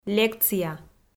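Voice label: "лекция", Komi, female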